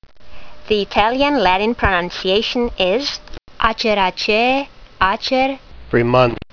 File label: latin; Acer xfreemanii